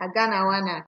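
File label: "aganawana", Nigerian Pidgin, female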